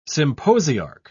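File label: "symposiarch", British English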